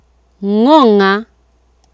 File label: "ng'ong'a", Swahili